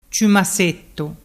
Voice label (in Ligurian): "ciumassettu", dialettu